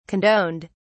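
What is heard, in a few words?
"condoned", British English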